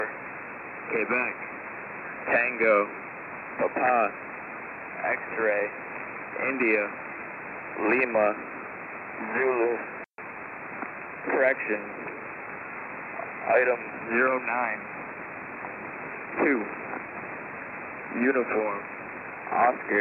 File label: 13200khz USB; USAF